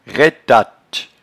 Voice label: "raitatte", patois jura